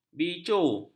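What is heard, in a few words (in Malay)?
"bicu", Malay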